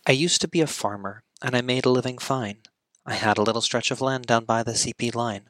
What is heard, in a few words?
headset test spoken wearing amp